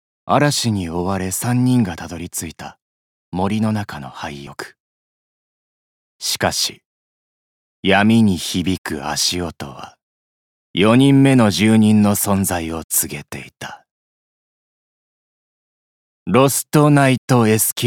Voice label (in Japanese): 映画CM